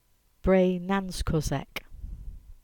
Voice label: "Lescudjack Hill" Cornish